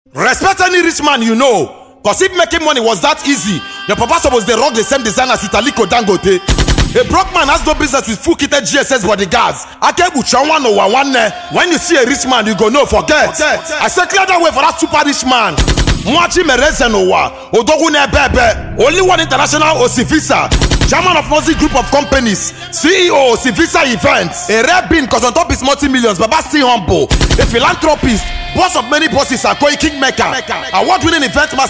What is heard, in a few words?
hypeman